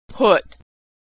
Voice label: verb "put", pronunciation